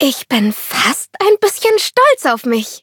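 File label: levelup, German; Cookie Run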